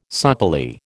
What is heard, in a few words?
"supplely", American